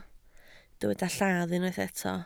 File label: "lladd", Welsh